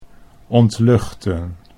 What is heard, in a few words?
"ontluchten", Dutch